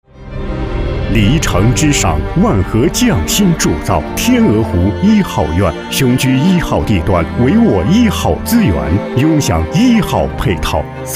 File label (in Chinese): 地产广告